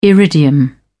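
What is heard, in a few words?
"iridium", English, British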